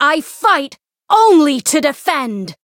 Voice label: Voice variable chant; hiina